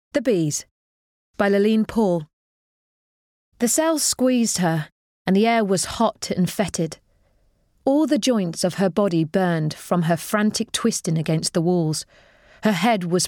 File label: audiobook